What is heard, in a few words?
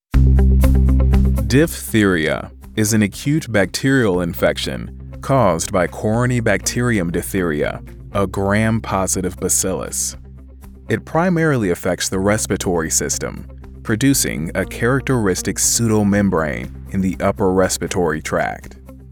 Diphtheria Medical Narration